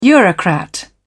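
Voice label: "eurocrat", British English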